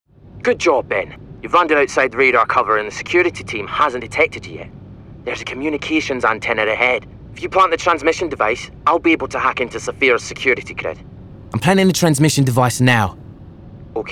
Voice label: Character